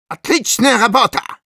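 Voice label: Medic good job, Russian; «Отличная работа!»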